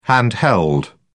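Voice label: "handheld", British English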